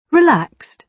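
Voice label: "relaxed", British English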